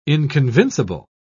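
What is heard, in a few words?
"inconvincible", English, British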